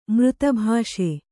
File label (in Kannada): "ಮೃತಭಾಷೆ", Kannada